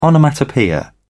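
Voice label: "onomatopoeia", British English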